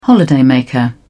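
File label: "holidaymaker", British English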